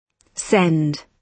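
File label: "send", British English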